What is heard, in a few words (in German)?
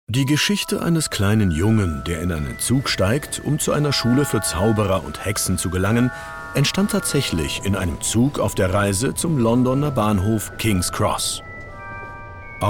German Audio